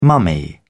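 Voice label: "mummy", British English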